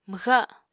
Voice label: "mwxa7", Apatani